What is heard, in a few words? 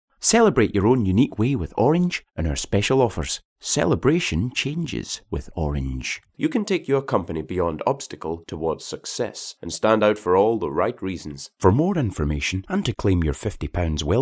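English Scottish, male, voice over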